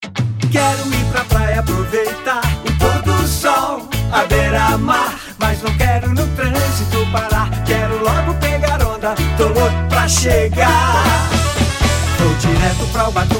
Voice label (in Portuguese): Spot, Locução; Spot 30 segundos; Pampers; Brincadeira